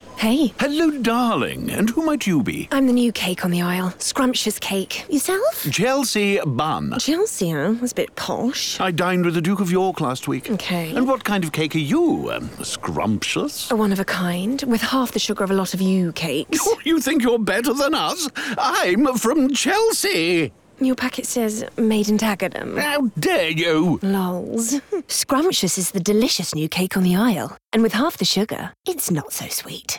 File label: radio; Scrummmptious; Made In Chelsea